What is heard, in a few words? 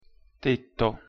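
"tetto", pronunciation Italian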